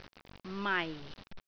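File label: "mai4", Cantonese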